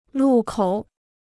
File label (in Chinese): "路口", Chinese